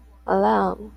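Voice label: "alarm", JPN, female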